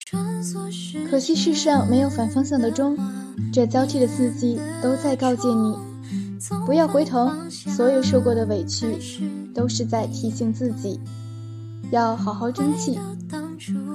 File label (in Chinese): 旁白